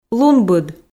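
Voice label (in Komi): "лунбыд", female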